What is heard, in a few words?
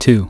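"two", English; iOS 4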